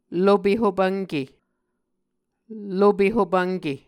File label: "lope hopvnke", Muscogee